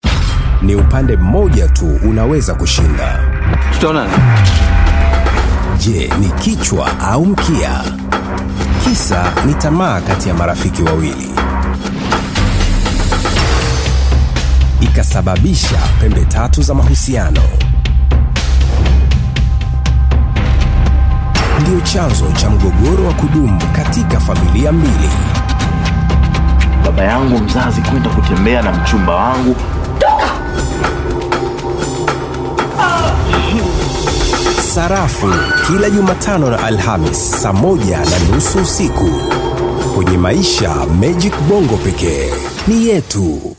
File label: swahili movie trailers